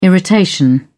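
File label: "irritation", British English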